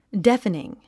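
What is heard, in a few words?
"deafening", English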